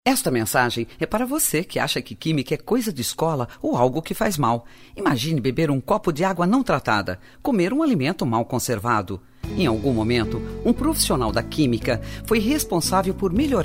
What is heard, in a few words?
spot